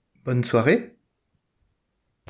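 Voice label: "bɔn sware", French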